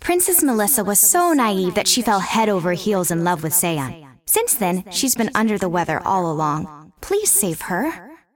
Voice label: cinematic voice; lineage2

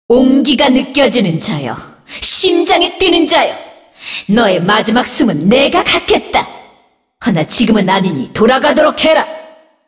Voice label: cinematic voice; lineage2

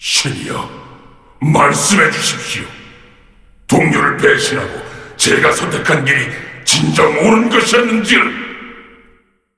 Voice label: cinematic voice; lineage2

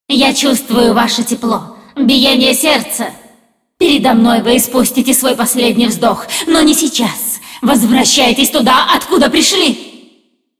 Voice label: cinematic voice, ru; lineage2

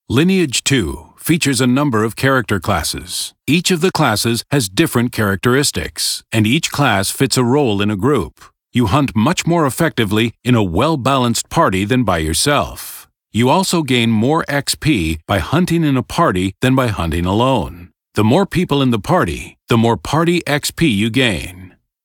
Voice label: tutorial voice; lineage2

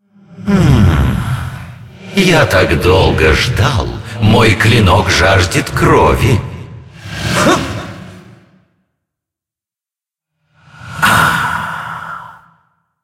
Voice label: cinematic voice, ru; lineage2

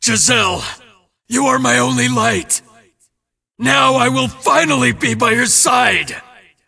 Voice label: cinematic voice; lineage2

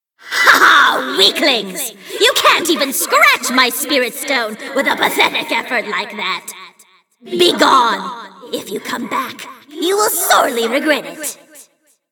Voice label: cinematic voice; lineage2

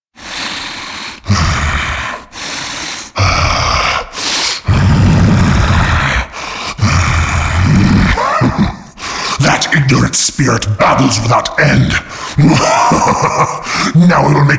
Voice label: cinematic voice; lineage2

